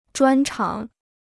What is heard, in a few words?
"专长", Chinese